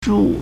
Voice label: "zhu1", Chinese